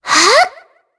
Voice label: Vox Casting jp; Shea; kingsraid audio